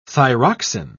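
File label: "thyroxin", British English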